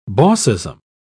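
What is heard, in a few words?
"bossism", British English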